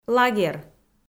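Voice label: "лагер", Komi, female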